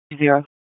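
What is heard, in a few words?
Raleigh Police Southeast District Dispatch, p25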